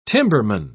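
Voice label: "timberman", British English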